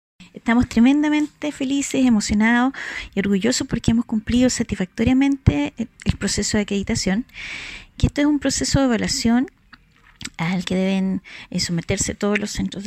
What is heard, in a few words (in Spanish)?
Cuña